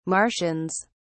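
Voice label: "martians", British English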